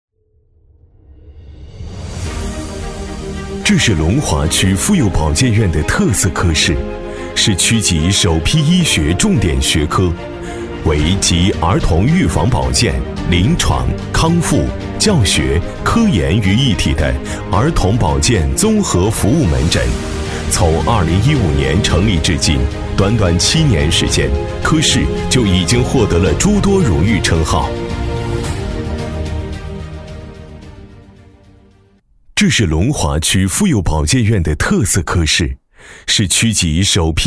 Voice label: 男 宣传 医院 妇保儿童保健科; 年轻浑厚 高端大气

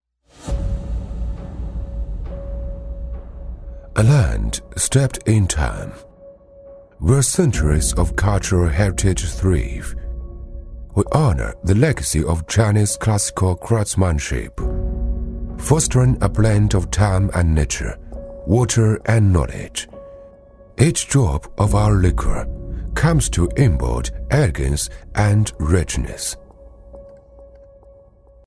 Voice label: 男 英文 品牌质感; 中英双语; 大气浑厚

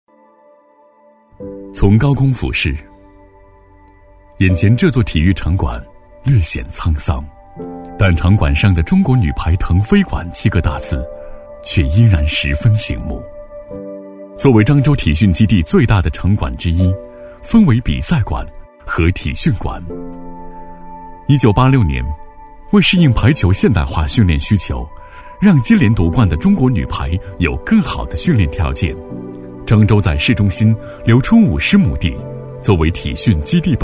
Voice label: 男 叙述 品质自然叙述, 大气浑厚; 中国女排腾飞馆

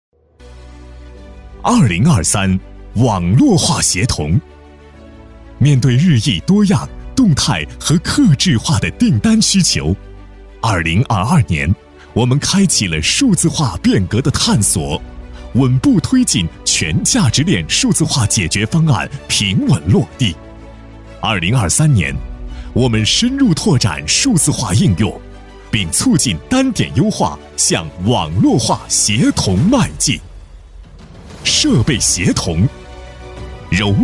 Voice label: 男 党建宣传 大气有力; 大气浑厚; 男117-高端大气

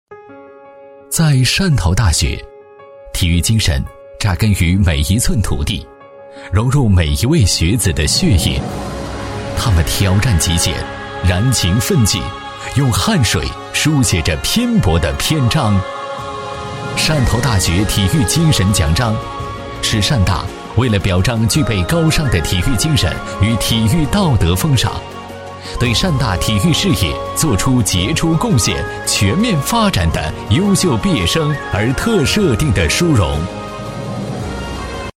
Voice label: 男 学校宣传片 大气抒情; 记录讲解; 高端大气; 汕头大学

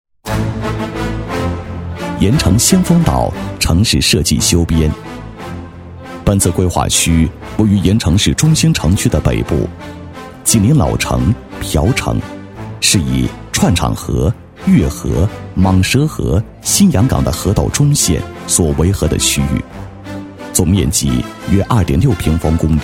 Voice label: 男, 厚重大气 大气浑厚; 盐城先锋岛城市设计修编

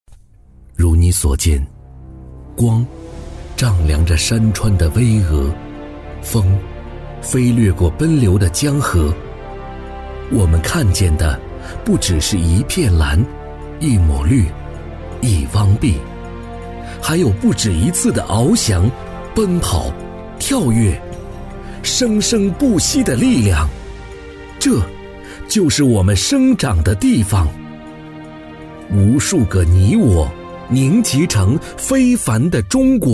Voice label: 男 走心党政 坚定昂扬; 新华社20大; 男B3-磁性稳重

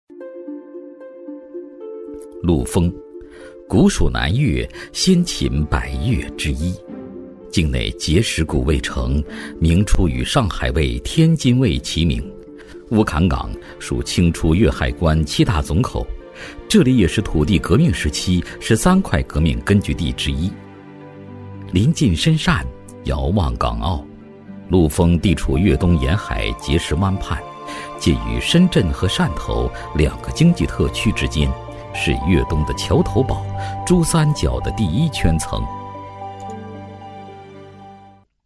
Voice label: 男 纪录片 舌尖感 浑厚讲述; 陆丰味道; 高端内涵 舌尖感

